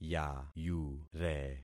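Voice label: "yayure", concatenated syllables; Affixing experiment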